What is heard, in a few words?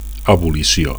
"abolicio", Catalan; (f) abolition